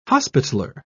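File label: "hospitaler", British English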